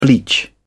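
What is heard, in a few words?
"bleach", British English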